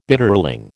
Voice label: "Bitterling", American

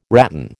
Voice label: "ratan", American

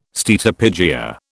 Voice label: "steatopygia", British

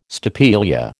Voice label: "stapelia", American

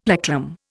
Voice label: "plectrum", Indian; Dictionary

